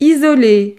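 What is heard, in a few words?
"isole", French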